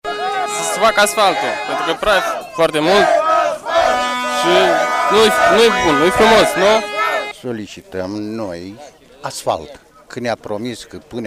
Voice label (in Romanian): vox pop